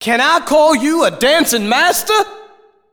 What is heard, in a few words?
Can I Call You A Dancin' Master (Echo); DDR Extreme SFX; evaluation aaa